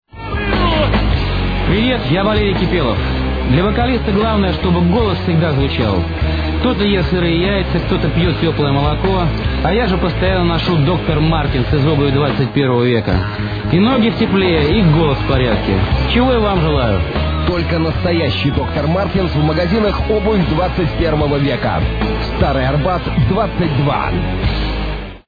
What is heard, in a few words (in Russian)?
reklama